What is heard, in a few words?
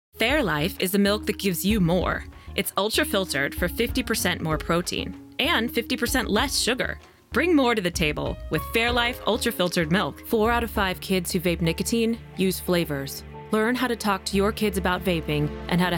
VO Commercial